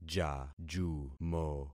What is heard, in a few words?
"jajumo", concat syllables; Affixing experiment